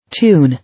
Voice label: "Tune", English